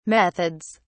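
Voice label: "methods", British English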